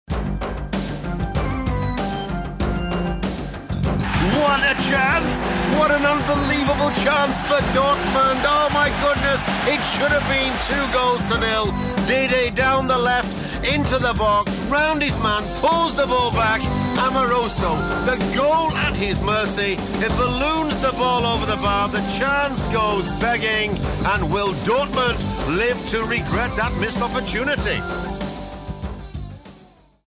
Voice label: Fussballreportage

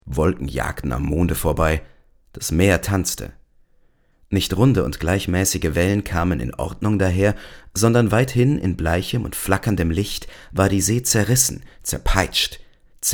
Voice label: Hörbuch Ausschnitt

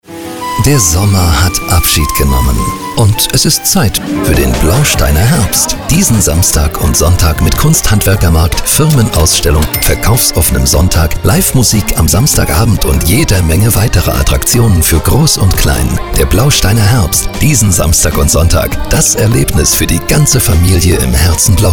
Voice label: DE Funkspot; Blausteiner Herbst

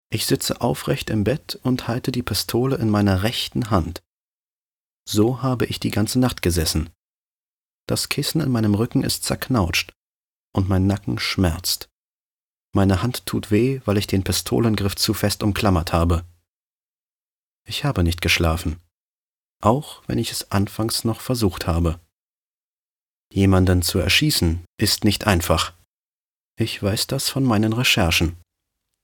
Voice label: Hörbuch Thriller

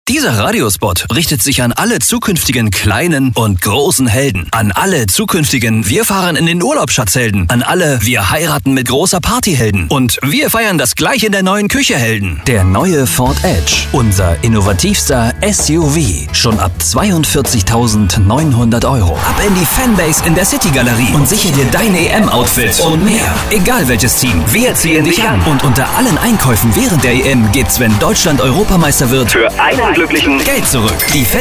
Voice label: Off Werbung Funk Spot Collage

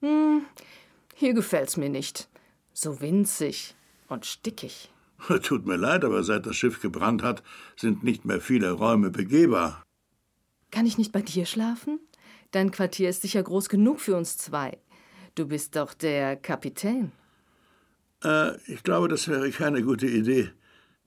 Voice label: Hoerspiel, Sprecherin

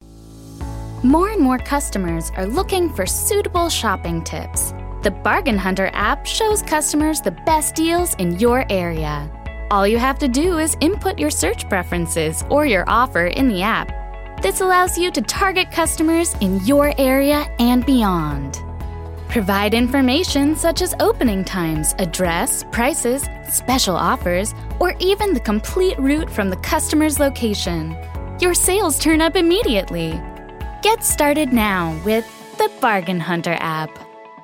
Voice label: ENGLISCH USA FEMALE Erklaervideo; Bargain Hunter Spar APP

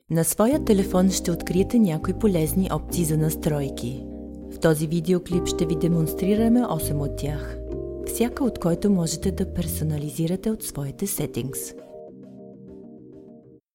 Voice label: E-Learning Bulgarisch

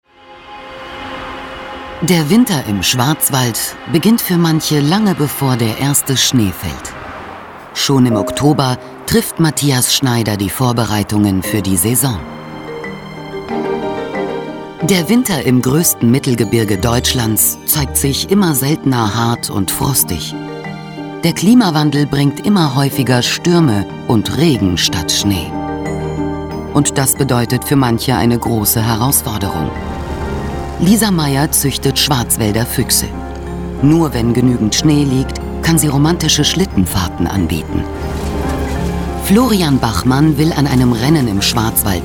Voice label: Doku; Ein Winter im Schwarzwald

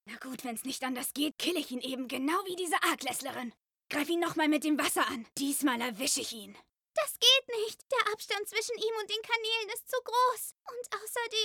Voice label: Dialog mit mir selbst, Synchronsprecherin; Darwins Game

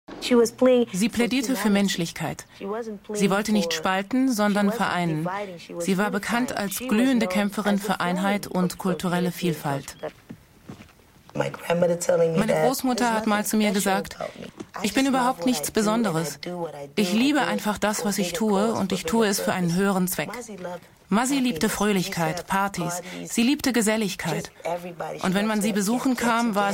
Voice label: Doku; Mama Africa; Alisas Film und Sprachtransfer

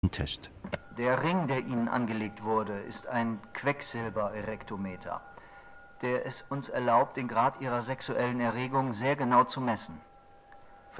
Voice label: Hoerspiel; Geloeschtes Leben

